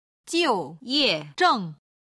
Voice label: "jiuyezheng", Chinese